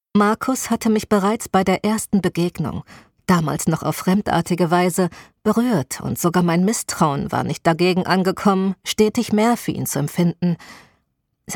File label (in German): Hoerbuch hystorisch